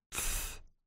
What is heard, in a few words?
phoneme th unvoiced, American; American IPA phonic